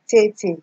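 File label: "taytay", Nigerian Pidgin, female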